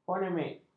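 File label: "fonemik", Malay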